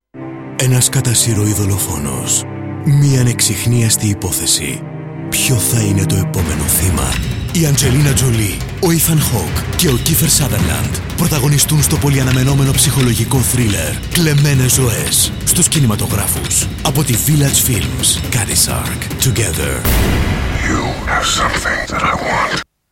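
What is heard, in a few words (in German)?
Dynamisch Greek; Fremdtake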